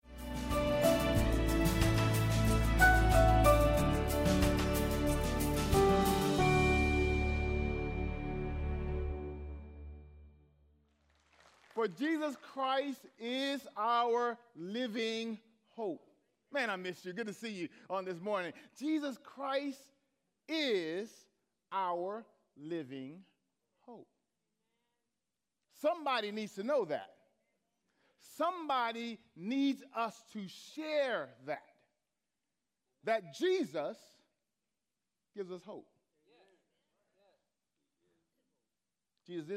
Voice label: English Sermon